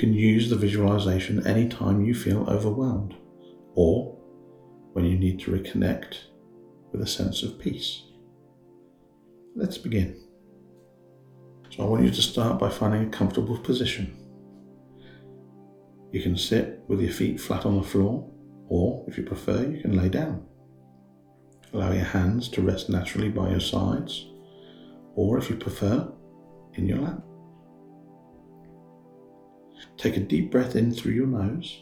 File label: Safe-Calm Space Visualisation